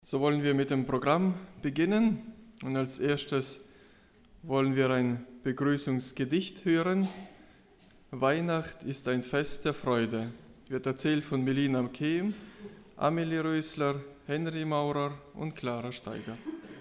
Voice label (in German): Ansage Gedicht